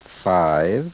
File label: SPEECH ENGLISH